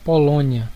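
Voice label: "poland", Brazilian Portuguese; gcompris; geography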